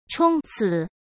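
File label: "冲刺", Mandarin Chinese; chinese-flashcards; hsk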